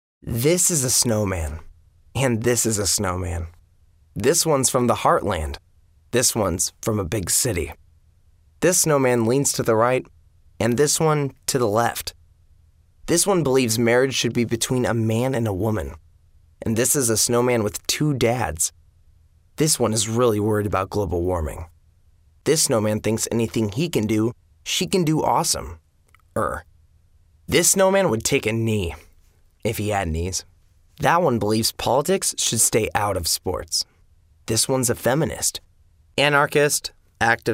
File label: radio; Snowman